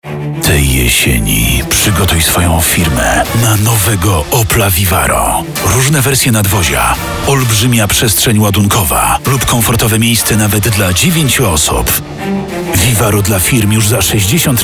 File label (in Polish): reklama; vivaro przygotuj firme